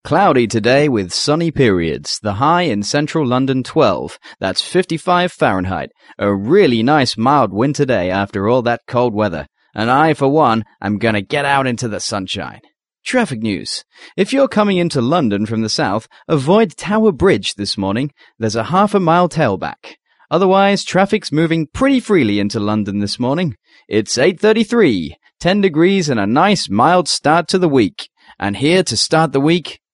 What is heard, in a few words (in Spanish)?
Ingles acento